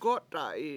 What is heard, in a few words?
it breaks, Washo